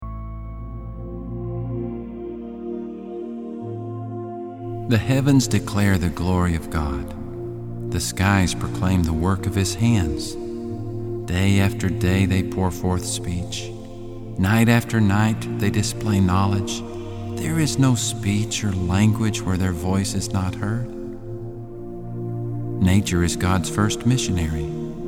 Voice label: Narration